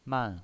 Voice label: "man4", pinyin

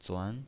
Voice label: "zuan2", pinyin

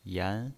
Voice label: "yan2", pinyin

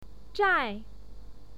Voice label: "zhai", pinyin

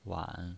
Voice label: "wan3", pinyin

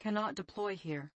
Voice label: cannot deploy girl; command-conquer

